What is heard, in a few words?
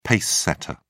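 "pacesetter", British English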